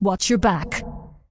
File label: Undead Female Warrior NPC Farewell